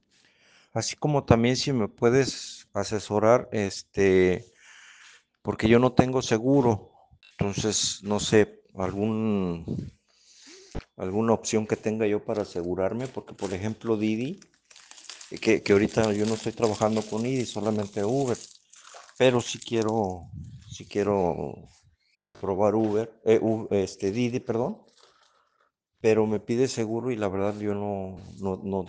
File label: whatsapp ptt